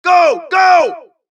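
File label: suppress, dialogue, Allies; mohaa; Mission 2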